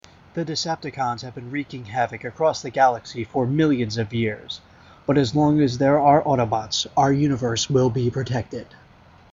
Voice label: Narrator Line; Masterforce; voice over work